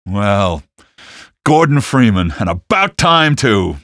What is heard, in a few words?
about time, male; Half-Life 2